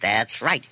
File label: "that's right", male